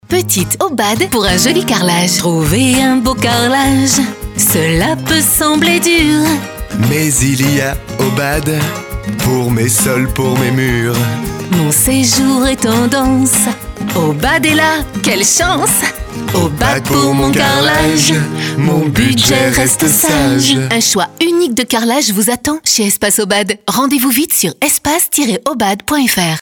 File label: spot, radiopub